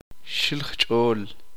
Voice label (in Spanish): uspanteko vientre